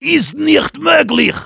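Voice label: Heavy no, German; "Ist nicht möglich!"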